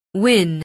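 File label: "win", English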